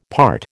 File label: "part", American